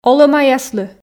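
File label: "олӧмаяслы", Komi, female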